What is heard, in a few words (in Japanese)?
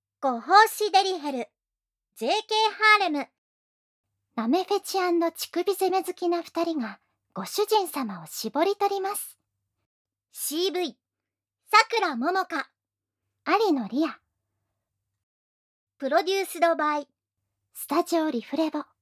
タイトルコール, ASMR